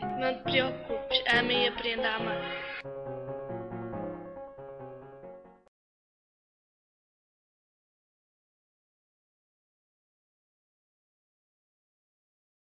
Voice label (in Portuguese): Anuncio ao Amor